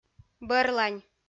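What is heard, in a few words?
"бӧрлань", Komi-Zyrian, female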